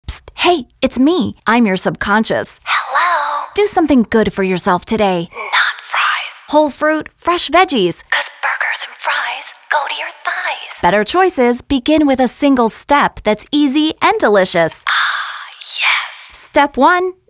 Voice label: Female